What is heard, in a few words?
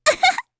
Happy, kr; voices; heroes; Pansirone; kingsraid